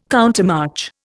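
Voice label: "countermarch", Indian English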